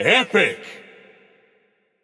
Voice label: Announcer Lobby Card Epic; BombKing

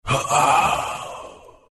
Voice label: deny, zh; Appa; Dota 2

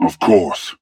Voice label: Emote Yes; Paladins; DarkLord

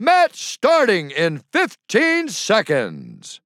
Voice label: Announcer Match Begin; Bruce

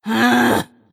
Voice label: snapfire anger

